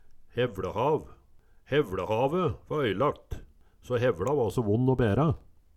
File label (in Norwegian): hevLehav, Numedalsmål